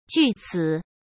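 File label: "据此", Mandarin Chinese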